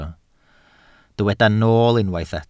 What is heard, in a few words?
"nool", Welsh